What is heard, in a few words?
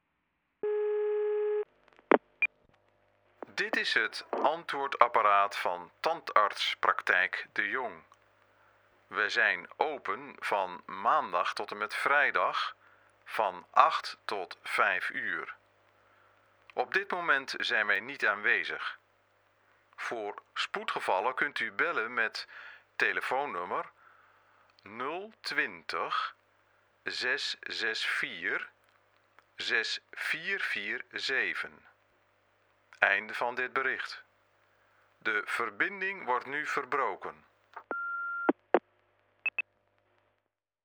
Voicemail